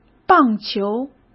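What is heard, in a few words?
"棒球", Mandarin Chinese; chinese flashcards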